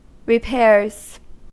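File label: "repairs", US English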